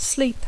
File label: "sleep", English